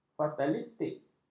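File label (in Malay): "fatalistik", Malay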